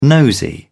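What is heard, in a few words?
"nosy", British English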